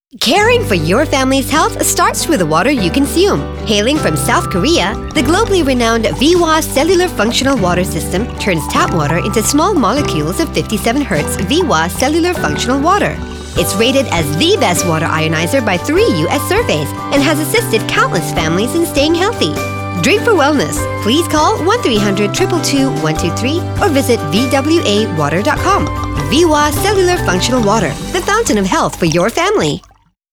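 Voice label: English; VWA CELLULAR FUNTIONAL WATER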